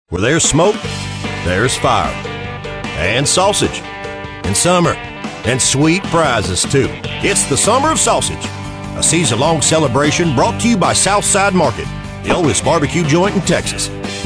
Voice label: Conversational male voice, Video Games, Video Game Voices; Video Game Voices Suitable For Character Dialogue, Narration, Cutscenes, And; South Side Market